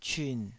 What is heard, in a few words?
"cyun3", Taiwanese syllable